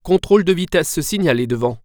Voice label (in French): Approach Speed Cam, french; waze